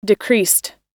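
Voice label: "decreased", US English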